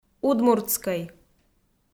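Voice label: "удмуртскӧй", Komi, female; kpv audio dict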